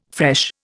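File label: "fresh", Indian English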